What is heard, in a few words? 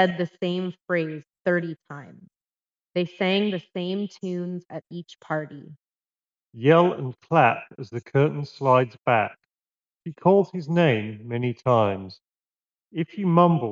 Dongle Reverb microphone sample; Playstation Pulse Elite